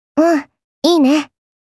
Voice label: "Good", kawaii voice; Positive